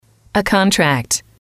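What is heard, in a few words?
"a contract", female, English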